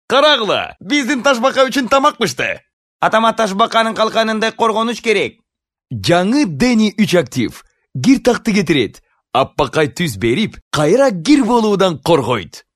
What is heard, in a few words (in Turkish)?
Kirgizca Erkek, seslendirme